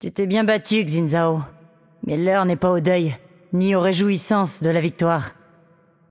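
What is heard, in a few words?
voice over, French; Game Outro; bloom minigame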